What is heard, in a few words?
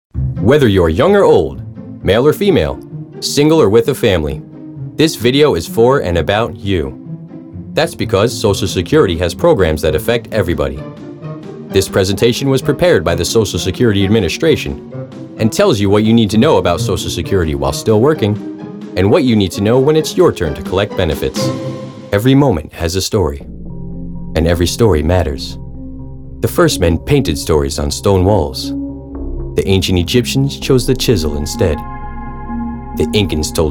Narration